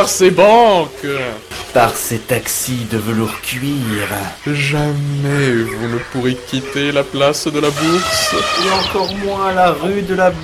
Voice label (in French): pub luxembourg